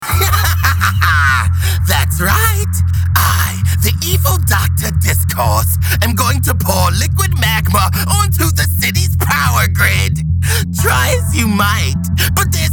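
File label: animation men character, Voice Over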